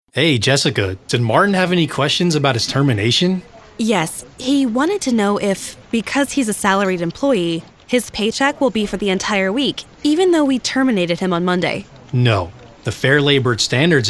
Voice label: E-Learning INTERACTIVE